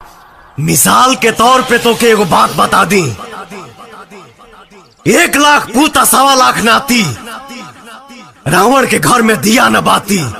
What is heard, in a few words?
Bhojpuri Dialogue; Pawan Singh; Tum Ko Aik Baat Bta Dain